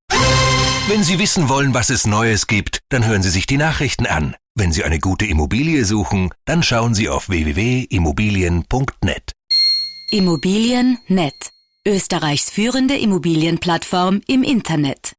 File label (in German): Nachrichten